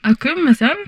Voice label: humanoid female; Stellaris